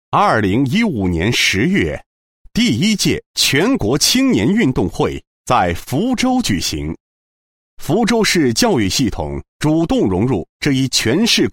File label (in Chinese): 男 专题 宣传; 全国青年运动会宣传